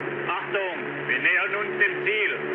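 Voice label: German near waypoint mood med, speech; War Thunder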